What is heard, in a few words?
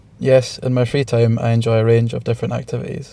interviewee male filler